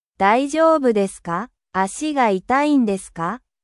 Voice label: japan